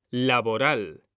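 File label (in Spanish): "laboral", Spanish, male; mekanta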